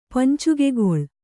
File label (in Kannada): "ಪಂಚುಗೆಗೊಳ್", kannada